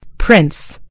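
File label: "prince", British English; gcompris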